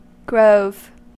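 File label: "grove" US English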